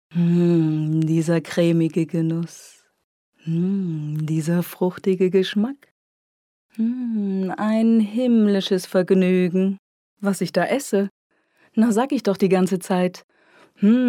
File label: female german voice over sales